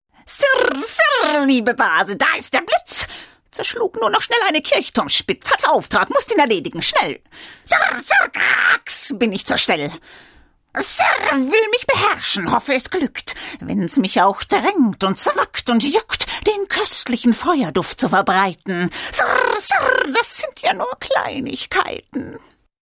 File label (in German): hoerspiel dialekt; Blitzhexe